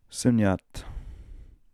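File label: "Sooniat", pronunciations; Fourth Way; Beelzebub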